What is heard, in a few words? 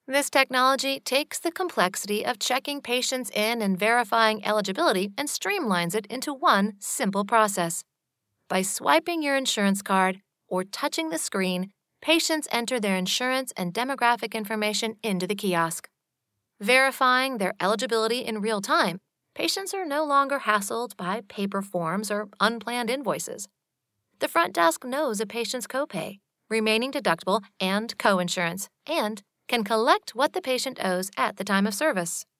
E-Learning